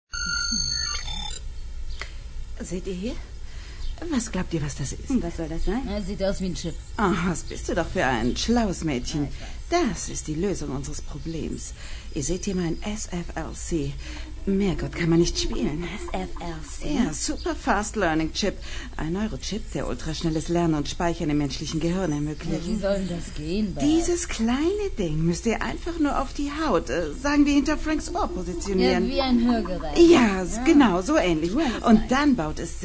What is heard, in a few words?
women